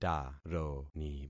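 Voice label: "taroni", concatenated syllables; Affixing experiment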